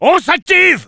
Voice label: battlecry, fr; Engineer

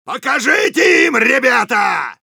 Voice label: battle cry, ru; Soldier

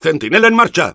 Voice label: Engineer auto building sentry, es

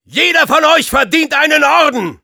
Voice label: cheers, de; Soldier

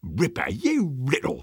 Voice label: positive vocalization, Sniper

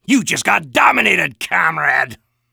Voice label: Soldier Domination Heavy

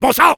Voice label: Soldier negative vocalization fr

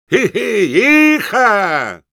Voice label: battle cry, es; Engineer

